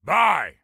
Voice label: Bye, Soldier Male; Soldier Male 03

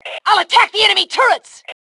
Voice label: Self Attack Turrets, Female

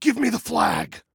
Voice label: Flag Give Me, Male

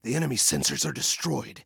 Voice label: Enemy Sensors, Male

